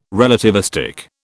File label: "relativistic", British